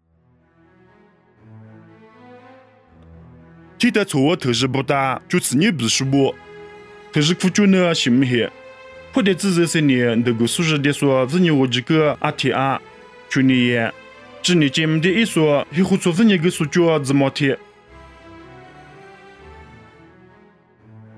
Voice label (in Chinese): 凉山彝语; 地中海叙利亚